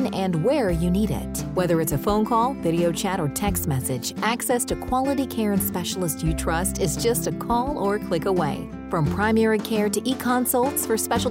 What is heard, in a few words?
telehealth radio spot; Bassett